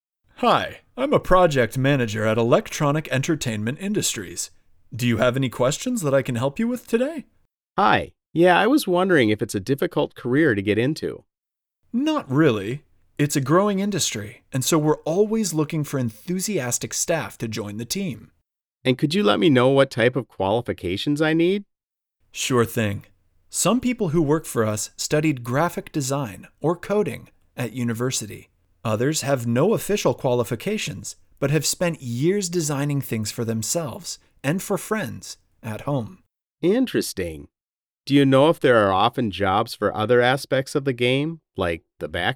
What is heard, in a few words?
Conversation; Real Heroes 4